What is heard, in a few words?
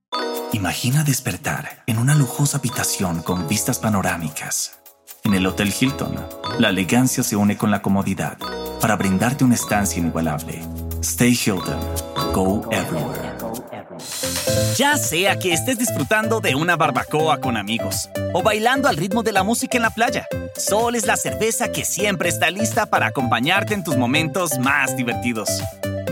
spanish latin american commercial; Kolumbien